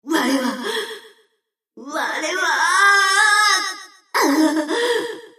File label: Enemy Voice Damaged Under Attack Debuffed; Fjord Princesses